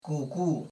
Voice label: cough